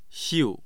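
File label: "xiu4", Mandarin Chinese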